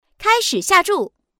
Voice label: start, girl